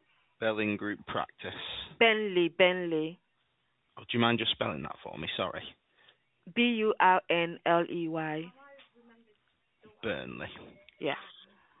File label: call recording